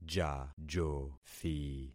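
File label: "jajothi", concatenated syllables; Affixing experiment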